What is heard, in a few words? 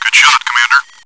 good shot commander, radio